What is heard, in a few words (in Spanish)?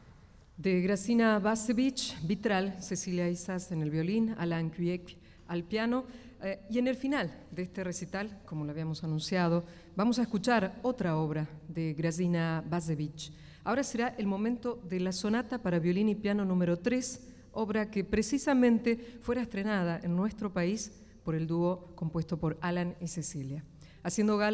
Palabra Locutora